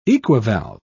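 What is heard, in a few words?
"equivalve", British English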